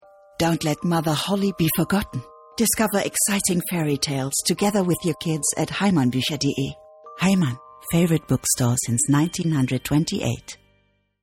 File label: englisch, Commercial (Werbung), English UK